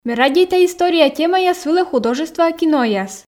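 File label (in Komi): ме радейта история темаяс вылӧ художествоа кинояс, Komi, female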